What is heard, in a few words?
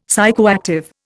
"psychoactive", Indian; Dictionary